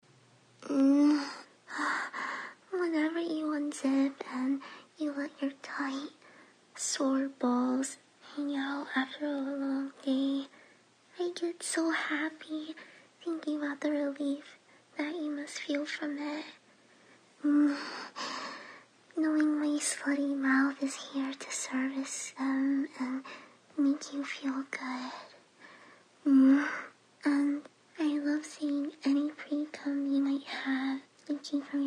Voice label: lewd voice messages (with white noise)